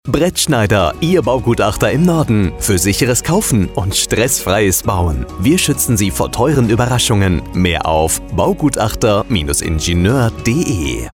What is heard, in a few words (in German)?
Funkspot; Brettschneider